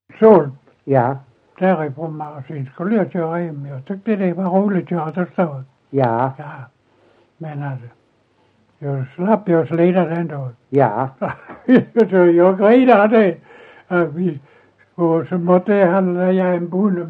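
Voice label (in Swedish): Ekeby Skåne, dialekter; Folkminnen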